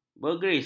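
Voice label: "bergris", Malay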